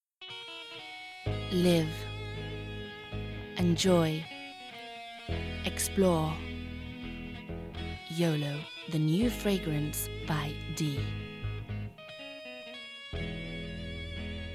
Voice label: Commercials English

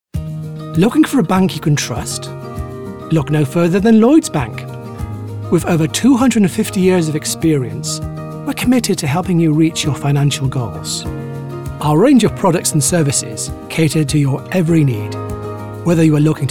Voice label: Commercial

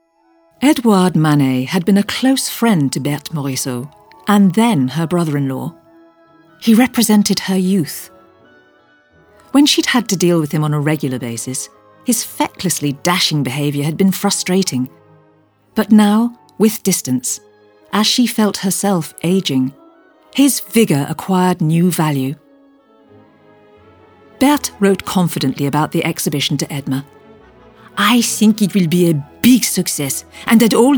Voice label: Narrative

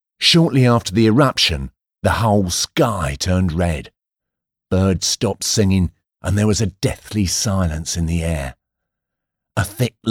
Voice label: Narration

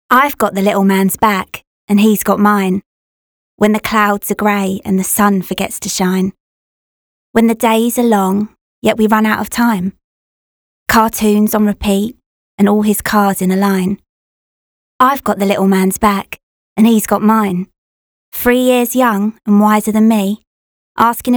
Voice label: spoken poetry

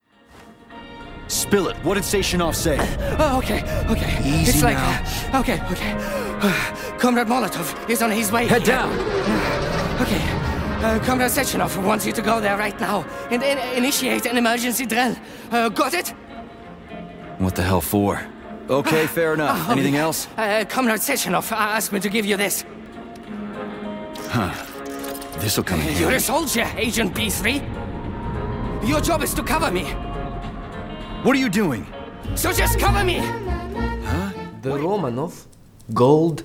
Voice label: Character Russian

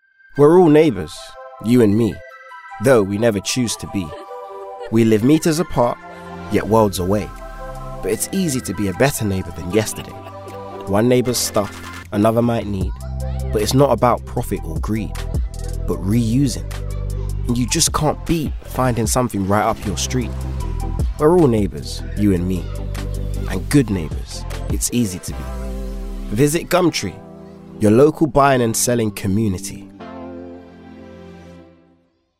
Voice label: Commercial; Gumtree

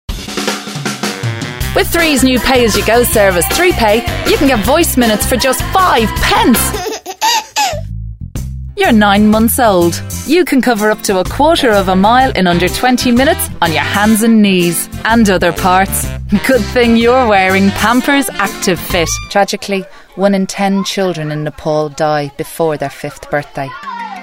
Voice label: Commercial

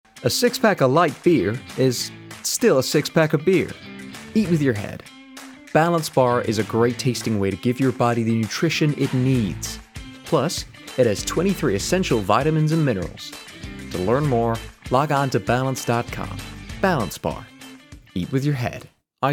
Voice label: American

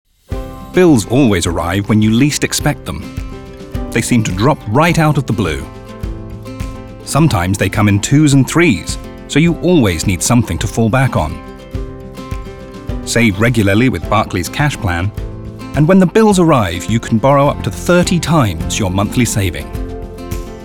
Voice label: English neutral, Wry, Relaxed, Reassuring; Commercial; Barclays

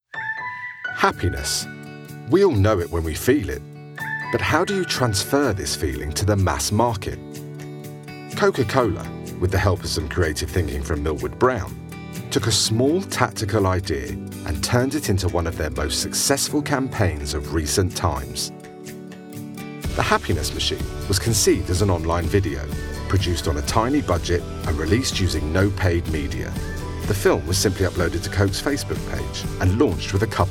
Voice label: CORPORATE

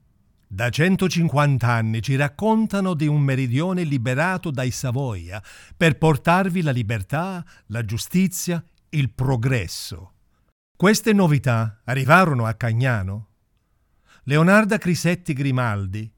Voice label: Narrative Italian

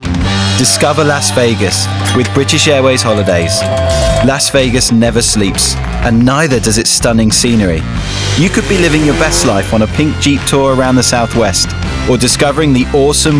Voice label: COMMERCIAL

